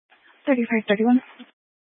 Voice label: Police Department Dispatch; Grand Junction